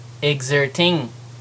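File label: "exerting", American English; pronunciations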